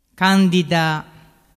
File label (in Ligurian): "candida", dialettu